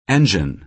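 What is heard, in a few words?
"engine", American English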